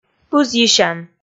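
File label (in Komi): "пызйысян", Komi, female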